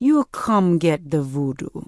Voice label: Troll Female Laid Back NPC Greeting